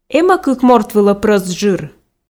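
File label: эм-ӧ кык морт вылӧ прӧст жыр, Komi, female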